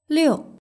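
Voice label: "liu" Chinese word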